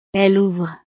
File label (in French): "elles ouvrent", frances